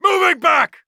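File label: British Retreat; Uk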